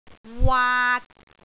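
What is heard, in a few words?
"waat3", Cantonese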